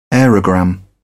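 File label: "aerogramme", British English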